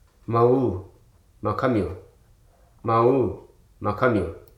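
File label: "inappropriate", English, Hruso dictionary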